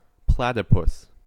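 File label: "platypus", American English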